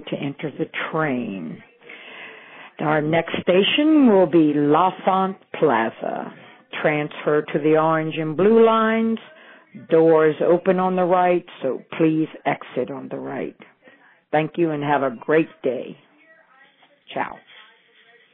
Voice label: metro announcement ad libbed

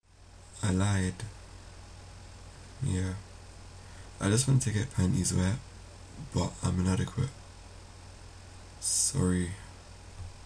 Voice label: speaking spanish proper sexy like

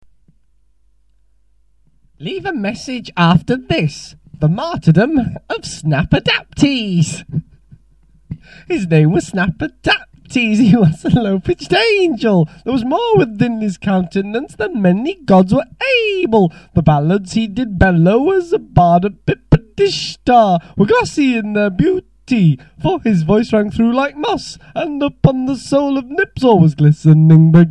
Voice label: voicemail; hacked

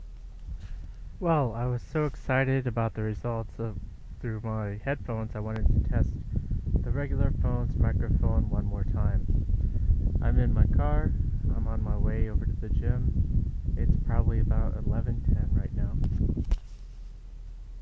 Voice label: phone microphone

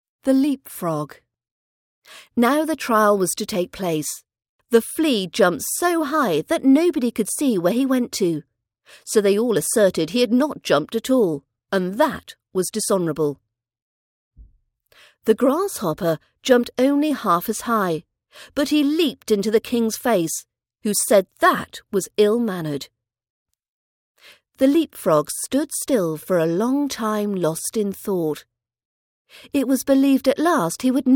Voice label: rp british accent practice audio; leap frog fairytale 2

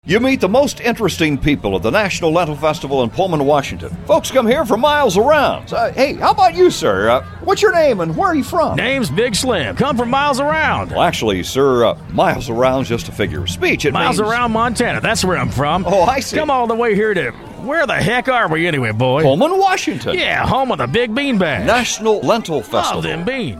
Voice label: radio advertising

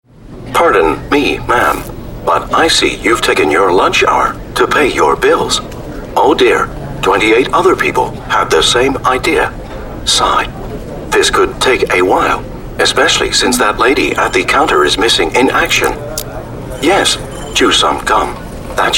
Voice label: robotic app voice american

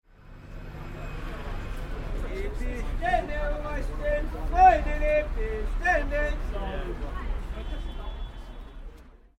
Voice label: evening standard seller's cry whitechapel

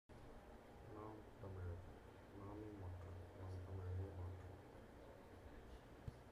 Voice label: tamil

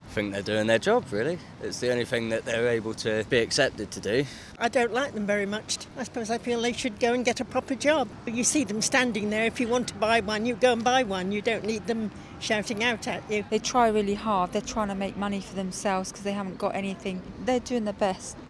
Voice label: vox pop

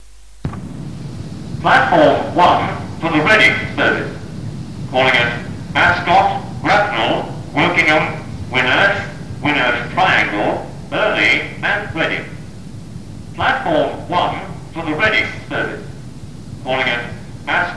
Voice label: pre recorded platform announcement, Richmond; year 1988

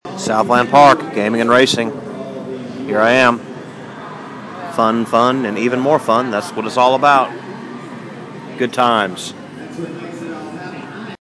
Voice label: short non informative message

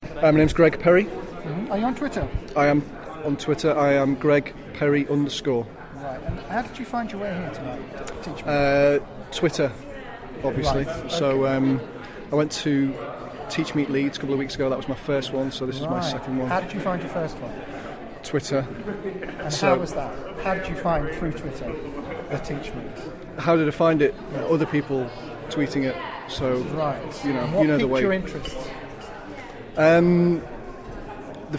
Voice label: vox pop; TeachMeet NCSL 2011

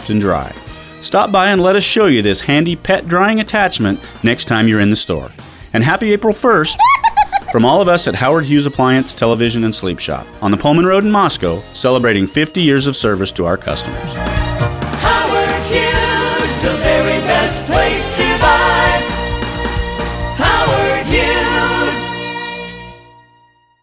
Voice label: radio advertising pet dryer

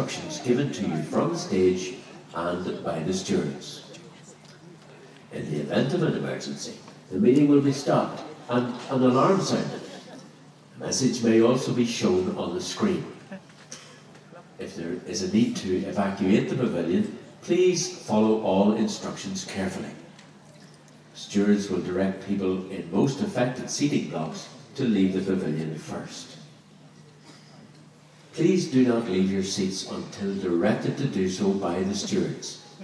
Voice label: safety announcement; new horizon

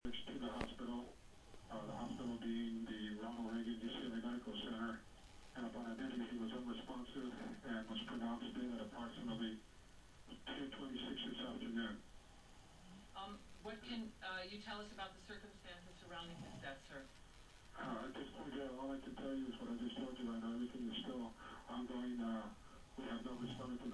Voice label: coroner confirms on phone

